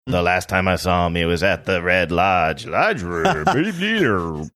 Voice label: saying the last time i saw him backwards talking gibberish